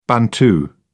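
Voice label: "bantu", British English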